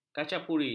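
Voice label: "kacapuri", Malay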